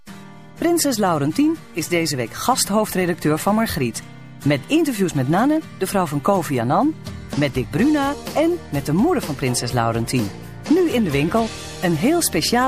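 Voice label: Dutch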